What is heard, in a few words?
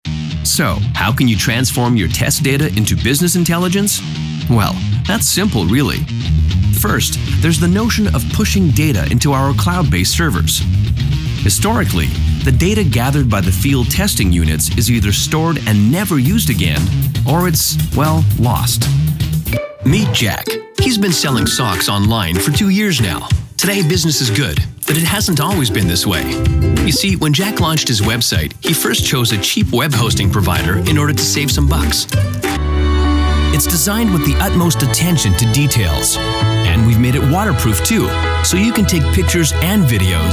us webmercial